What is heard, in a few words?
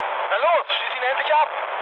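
German aircraft damaged mood med, speech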